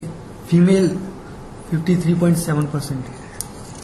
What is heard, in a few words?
female